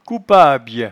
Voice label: "coupabye", patois jura

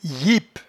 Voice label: "yipe", patois jura

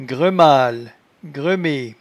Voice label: "gremale", patois jura

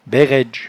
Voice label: "bairradge", patois jura

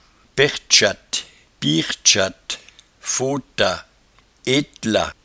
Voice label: "pertchatte", patois jura

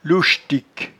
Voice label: "louchtic", patois jura

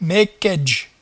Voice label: "mecquaidge", patois jura

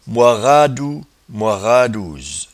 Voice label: "moiradou", patois jura

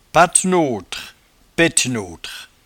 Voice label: "patenotre", patois jura

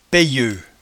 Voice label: "payieut", patois jura

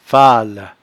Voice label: "fale", patois jura

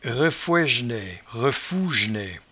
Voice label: "refouejnaie", patois jura

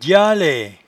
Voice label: "dyialaie", patois jura

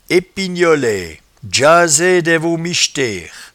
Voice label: "epignolaie", patois jura

